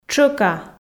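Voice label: "щӧка", Komi, female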